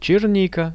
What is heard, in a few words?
"chernika", Russian; черника; jagody; RUSSIAN VISUAL DICTIONARY